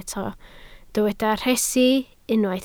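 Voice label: "rhesi" Welsh, spoken word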